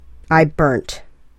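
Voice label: "I burnt", English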